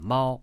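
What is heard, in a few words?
"mao1", Chinese, male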